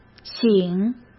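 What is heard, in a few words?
"醒", Mandarin Chinese; hsk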